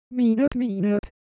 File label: Korean, Ideo Phones; Shape